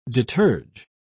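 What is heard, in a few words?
"deterge", British English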